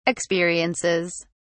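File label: "experiences", English, British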